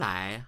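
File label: "lai2", Chinese, male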